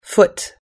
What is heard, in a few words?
"foot", us english